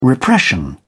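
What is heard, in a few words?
"repression", British English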